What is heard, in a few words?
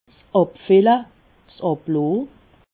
"abfille", alsace